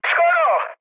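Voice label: bomb failure, Czech, mood med; speech; War Thunder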